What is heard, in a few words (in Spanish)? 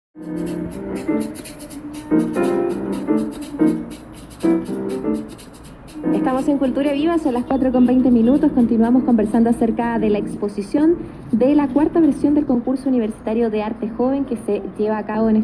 entrevista, radio; 4to concurso universitario; radio usach